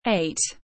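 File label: "Ate", English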